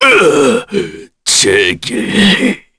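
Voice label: Vox Dead, Korean; Baudouin; kingsraid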